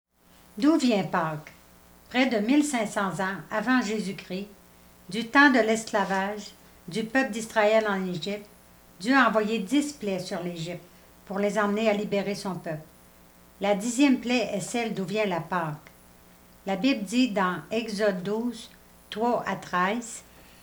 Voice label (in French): narration et lecture; Cantate : Christ notre Pâque; Pâques